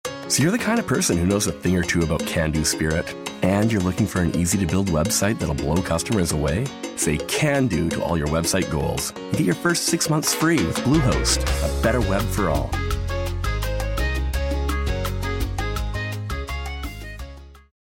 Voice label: Commercial Voice Over, Knowledgeable · Trustworthy; Commercial Demo Clips; Bluehost